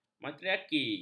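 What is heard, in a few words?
"matriaki", Malay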